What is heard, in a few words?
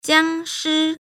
"zombie", ch; halloween